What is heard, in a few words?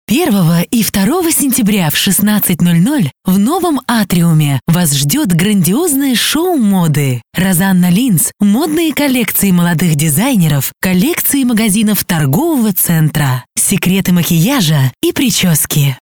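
Russian, female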